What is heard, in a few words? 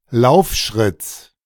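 "Laufschritts", German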